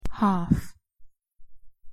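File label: "half", British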